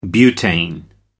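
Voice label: "butane", English, British English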